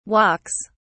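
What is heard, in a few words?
"walks", British English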